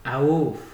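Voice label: "awuf", Nigerian Pidgin, male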